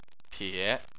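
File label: "pie" third tone, Chinese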